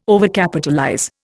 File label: "Overcapitalize", Indian